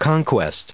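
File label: "conquest", US English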